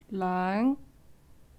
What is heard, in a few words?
"loeng5", cantonese